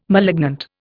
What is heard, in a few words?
"malignant", Indian